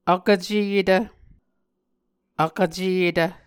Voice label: "vkvceyetv", Muscogee

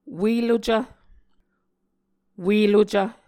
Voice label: "ue lucv", Muscogee

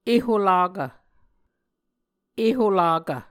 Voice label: "eholakv", muscogee

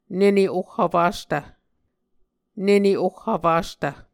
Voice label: "nene oh vfastv", Muscogee

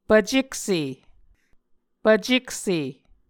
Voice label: "pvcekse", Muscogee

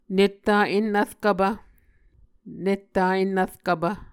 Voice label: "netta ennvrkvpv", Muscogee

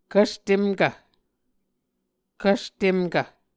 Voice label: "kvstemkv", Muscogee

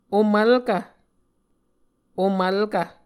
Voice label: "omvlkv", Muscogee

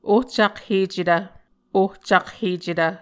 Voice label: "ohcakhecetv", Muscogee

